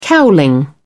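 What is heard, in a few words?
"cowling", British English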